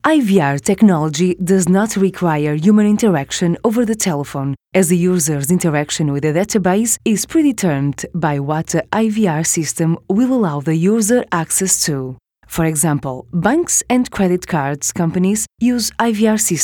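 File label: Portuguese English female; accent of portugal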